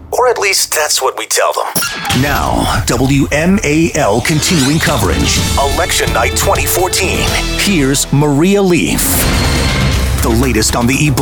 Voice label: radio news talk, radio imaging